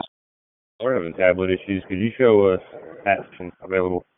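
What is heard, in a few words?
Fire Department HQ Dispatch, p25; RFD HQ DISP; wake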